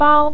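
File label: "bao" neutral tone, Mandarin Chinese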